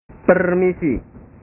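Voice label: "permisi", Indonesian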